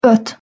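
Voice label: "ot", Hungarian pronunciation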